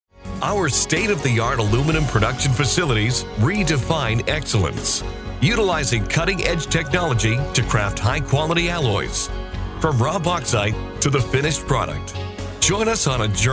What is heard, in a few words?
Corporate